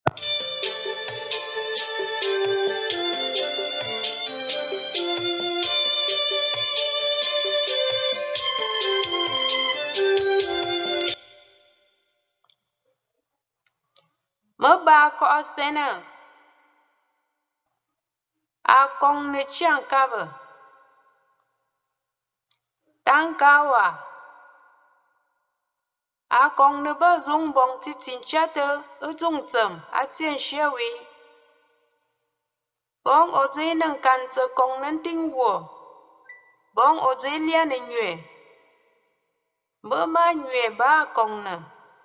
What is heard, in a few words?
wise saying, daily wise saying in nkwen; Mǝbǎkò’ò Sènǝ